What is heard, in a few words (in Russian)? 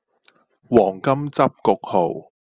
Гонконгский